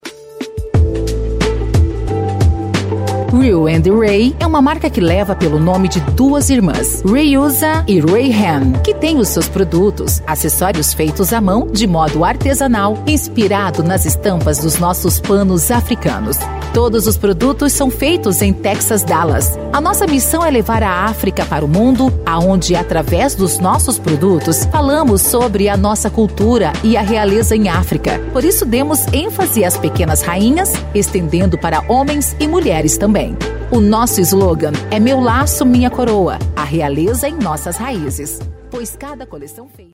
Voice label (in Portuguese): LOCUTORA; comerciais